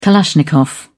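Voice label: "kalashnikov", British English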